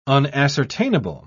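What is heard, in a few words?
"unascertainable", British English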